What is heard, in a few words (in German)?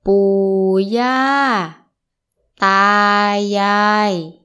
grosseltern; menschen